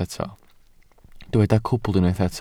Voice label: "cwbl", welsh